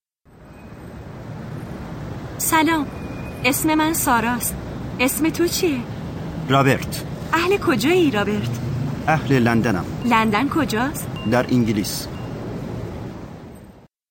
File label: Dialogue lesson Farsi